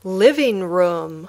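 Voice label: "living room", English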